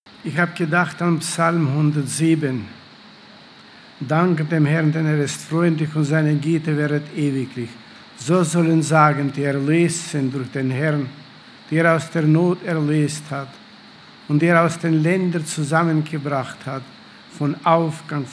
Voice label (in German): Ansage; Lagerversammlung 2018